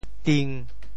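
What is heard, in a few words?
"ting1", Teochew; 潮州; 癲僮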